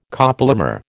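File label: "copolymer", American English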